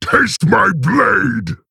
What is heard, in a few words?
Male Orc; Grommash; Jaina Mission4